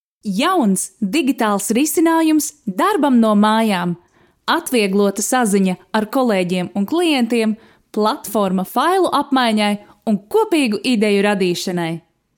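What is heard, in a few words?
Latvian, commercial; GoLocalise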